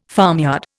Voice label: "farmyard", Indian